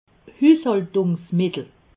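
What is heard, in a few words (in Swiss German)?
"hushaltungsmittel", Alsatian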